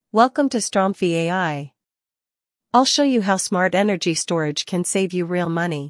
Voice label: english intro, voice